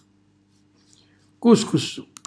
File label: "cuscussu", Calabrese; couscous